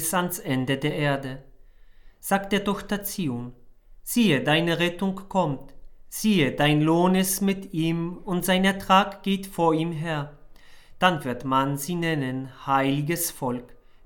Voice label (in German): Lesung Weihnachten am Morgen